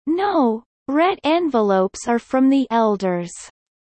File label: low